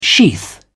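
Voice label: "sheath", British English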